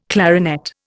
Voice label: "clarinet", Indian